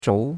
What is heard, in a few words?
"zhou2", chinois; Cours-de-Chinois